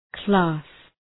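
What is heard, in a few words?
"class", English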